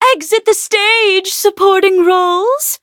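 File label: victory, English; cookie run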